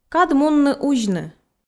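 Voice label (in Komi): кад мунны узьны, Komi, female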